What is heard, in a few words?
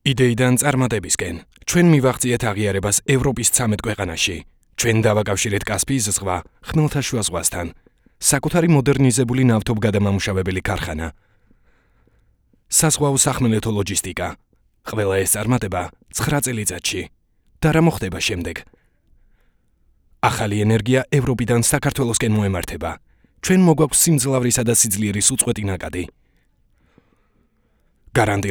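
georgian male commercial; voice demos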